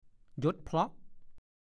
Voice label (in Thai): "หย๊ดเพ้าะ", ภาษาโคราช; หยดติ๋ง ๆ